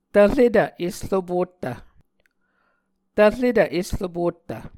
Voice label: "tvretv esropottv", Muscogee